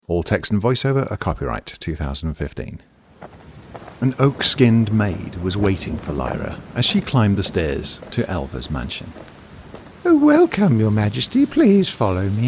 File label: Talking Book